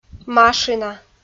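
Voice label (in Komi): "машина", Komi, female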